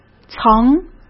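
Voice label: "层", Mandarin Chinese; chinese-flashcards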